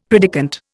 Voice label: "predikant", Indian; Dictionary

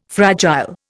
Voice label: "fragile", Indian

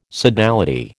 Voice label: "sodality", American

